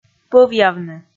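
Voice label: "пӧвъявны", Komi, female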